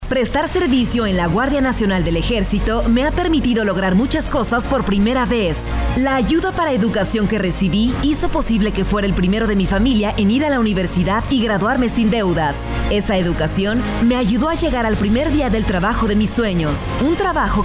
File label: Radio Spanish